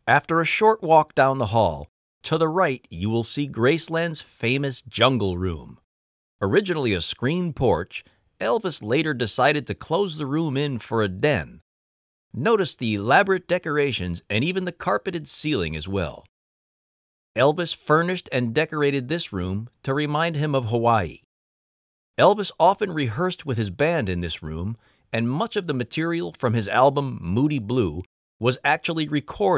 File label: tour guide; graceland